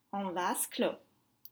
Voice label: "en vase clos", French; in isolation